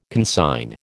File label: "consign", American